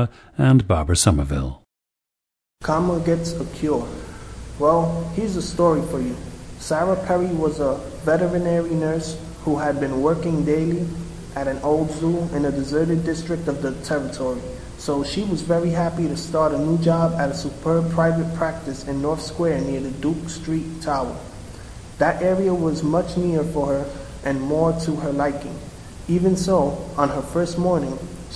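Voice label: new york, International Dialects of English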